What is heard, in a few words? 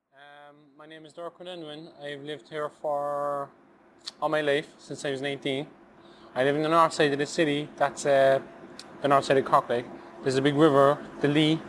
Ireland Cork male 19 bartender; Munster; south west ireland